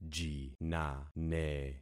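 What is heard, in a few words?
"jinane", concatenated syllables; Affixing experiment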